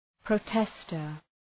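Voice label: "protester", English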